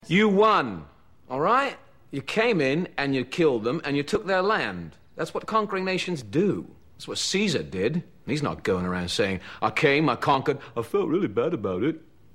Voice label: Indians Speech; Spike